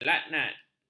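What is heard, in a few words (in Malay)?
"melaknat", Malay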